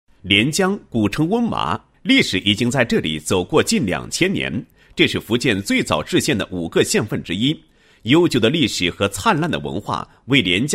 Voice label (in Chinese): 男, 地区宣传; 福建省连江县宣传配音